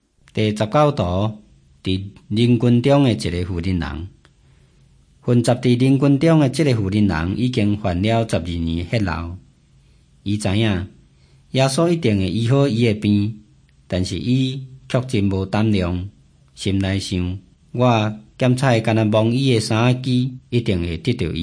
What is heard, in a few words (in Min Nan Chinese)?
taiwanese, drama; hokkien; taiwanese min nan; jesus teacher and healer